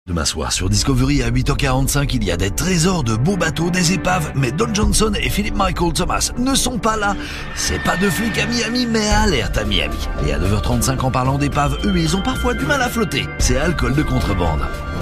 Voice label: continuity voice; discovery channel